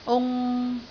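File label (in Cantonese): "ung3", Cantonese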